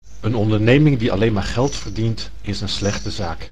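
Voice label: "Een onderneming die alleen maar geld verdient, is een slechte zaak.", Dutch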